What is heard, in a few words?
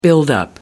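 "buildup", British English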